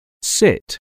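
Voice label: "sit", English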